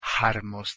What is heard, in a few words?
"harmos", Ancient Greek